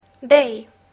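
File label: "bay", English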